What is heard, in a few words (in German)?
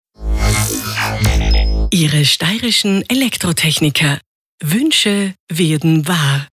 SPOT Kurzreminder